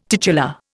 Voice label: "titular", Indian

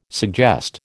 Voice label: "suggest", American

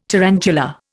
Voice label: "tarantula", Indian

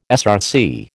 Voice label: "SRC", American

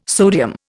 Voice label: "sodium", Indian

